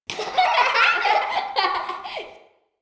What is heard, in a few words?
Diner Voice; Hub Diner